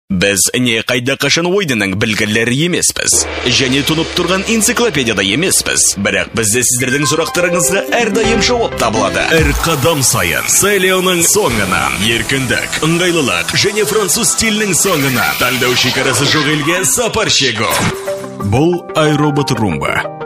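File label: kazakh male commercial; voice demos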